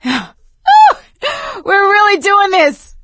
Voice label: hurrah, survivor voice; Left 4 Dead 2; producer

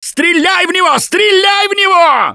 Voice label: grabbed by charger, russian, Survivor Voice; Gambler

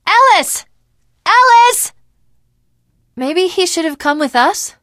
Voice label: teengirl, voice; Left 4 Dead 2; l4d1 finale

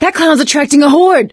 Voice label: see clowns, survivor voice; Left 4 Dead 2; producer

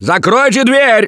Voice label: Close The Door, russian; Gambler

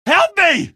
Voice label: help, male; Garry's Mod; hl2; Streetwar

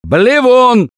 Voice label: warn boomer, russian; Voice; Gambler

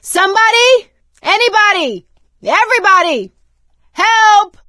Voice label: call for rescue, survivor voice; Left 4 Dead 2; producer

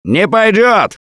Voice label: reaction negative, russian; Gambler

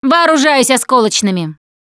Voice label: explosive ammo, russian, Survivor Voice Producer

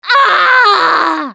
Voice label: battlecry, russian, Survivor Voice Producer

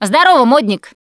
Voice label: name gambler, russian, Survivor Voice Producer

